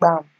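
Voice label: "gbam", Nigerian Pidgin, female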